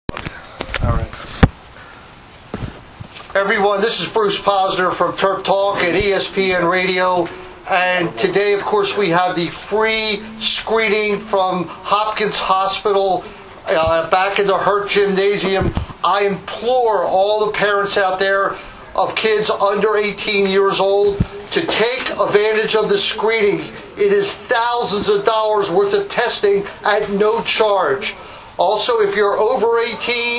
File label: announcement; morgan state